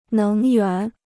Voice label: "能源", Chinese

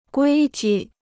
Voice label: "规矩", Chinese

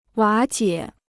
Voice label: "瓦解", Chinese

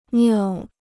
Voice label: "尿", Chinese

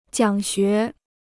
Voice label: "讲学", Chinese; jiǎng xué

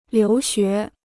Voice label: "留学", Chinese

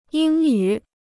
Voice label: "英语", Chinese

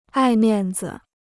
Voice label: "爱面子", Chinese

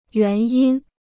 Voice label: "原因", Chinese

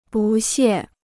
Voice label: "不屑", Chinese

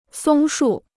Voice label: "松树", Chinese

